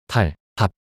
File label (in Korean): 탈탑 낱소리 남자